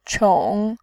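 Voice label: "qiong", Mandarin Chinese, third tone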